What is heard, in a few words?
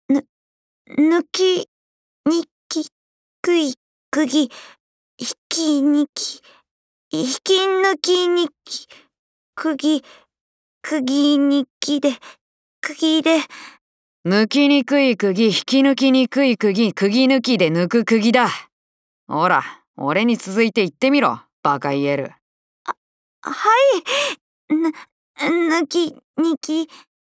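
voice Japanese idle; YaleAndOliver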